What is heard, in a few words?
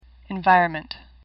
"environment", English; AWL; sublist 1